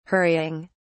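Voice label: "hurrying", English, British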